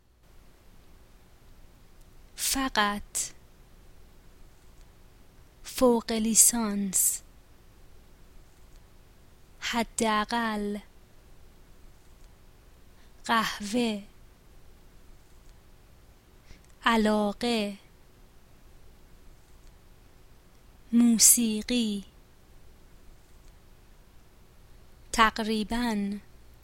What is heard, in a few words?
pronunciation, Persian